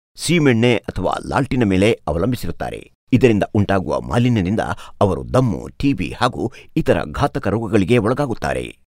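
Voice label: Kannada male Sample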